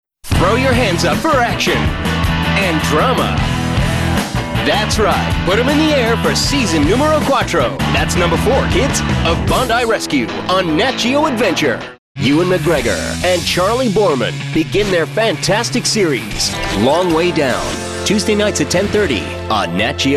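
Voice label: American, TV commercial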